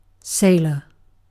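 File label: "sailor", British English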